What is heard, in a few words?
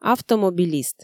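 "автомобилист", Komi, female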